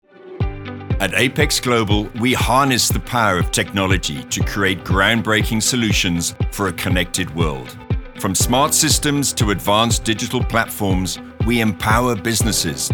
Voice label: CORPORATE; Apex